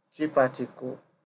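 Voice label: "cheaparticle", Nigerian Pidgin, male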